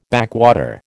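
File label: "backwater", American English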